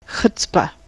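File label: "chutzpah", US English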